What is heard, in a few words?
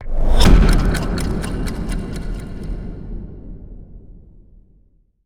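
NL Speech Timer, Dutch; World Of Warships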